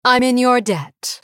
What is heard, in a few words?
female blood elf thanks